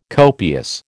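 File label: "copious", American English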